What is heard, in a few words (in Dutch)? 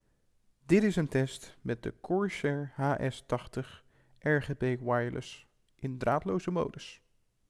Microfoonopname draadloos; Corsair HS80 RGB Wireless